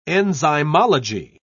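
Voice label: "enzymology", English, British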